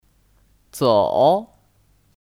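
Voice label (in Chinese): "走", Mandarin Chinese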